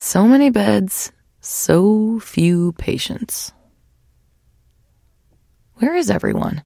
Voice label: trader monolog; 商人杰恩（七日杀）